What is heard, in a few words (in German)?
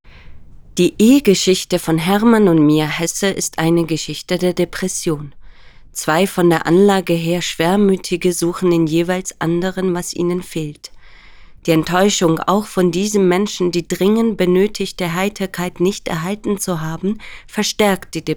Feature, sprecherin